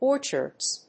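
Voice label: "orchards", English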